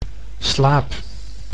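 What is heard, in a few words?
"slaap", Dutch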